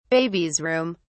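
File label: "baby's room", British English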